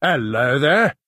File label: male hello; Bosmer